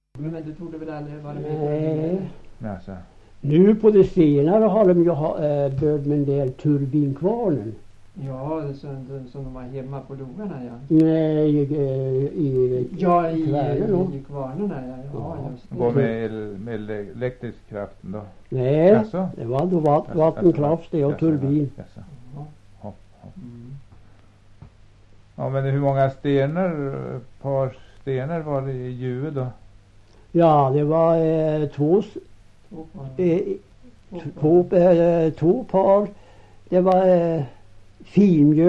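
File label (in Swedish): Högsjö Ångermanland, dialekter; Folkminnen; Svenska sagor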